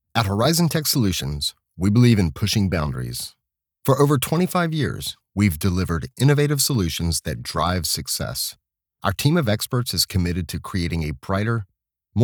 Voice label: Corporate Read Male North American Standard English